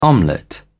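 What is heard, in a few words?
"omelette", English, British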